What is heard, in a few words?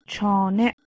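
"chane", Persian, female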